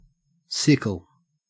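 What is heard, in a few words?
"sickle", Australian English; pronunciations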